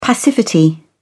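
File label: "passivity", British English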